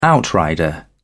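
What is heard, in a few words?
"outrider", British English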